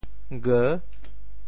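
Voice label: "geu6", Teochew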